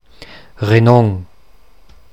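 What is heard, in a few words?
Renon dialect; Renon Mundart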